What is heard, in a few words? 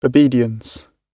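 "obedience", British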